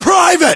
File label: rank private, us